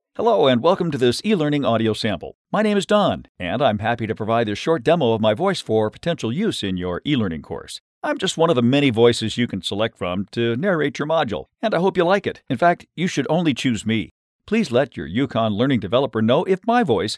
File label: Casual; voice talent; e-learn